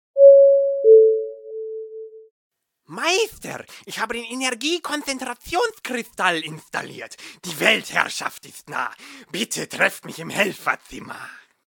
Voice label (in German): durchsage